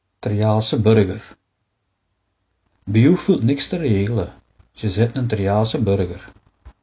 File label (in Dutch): dwarsligger, dialect